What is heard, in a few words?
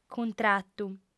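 "cuntratu", female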